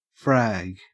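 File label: "frag", Australian English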